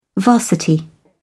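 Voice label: "varsity", British English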